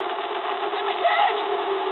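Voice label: aircraft destroyed mood high, speech English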